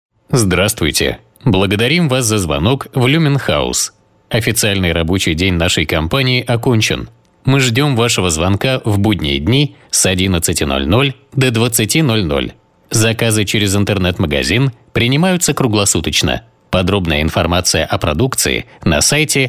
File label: ivr